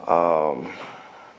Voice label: um male; ooh ahh; uh um

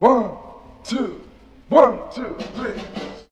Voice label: 1 2 1 2 3 british bloke; Voice; numbers and letters

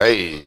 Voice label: "hey", Voice; ooh ahh

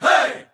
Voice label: hey, group of men

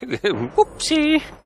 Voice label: "whoopsie", expletives, g-rated swears; Voice

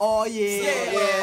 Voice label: "ah yeah say what", voice

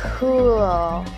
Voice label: "cool", girl; Voice; expletives

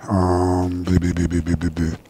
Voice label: um b b b b b b, Voice gibbrish

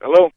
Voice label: "hello", male; expletives

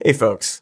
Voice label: "hey folks", voice

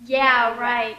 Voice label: yeah right, girl; Voice

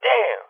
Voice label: "damn", expletives

